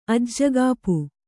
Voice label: "ಅಜ್ಜಗಾಪು", Kannada